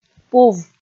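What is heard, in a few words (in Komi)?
"пув", Komi, female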